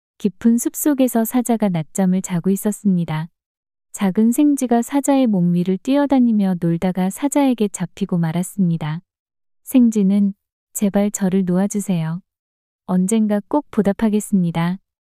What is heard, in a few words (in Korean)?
이야기 여자; 은혜값은 생쥐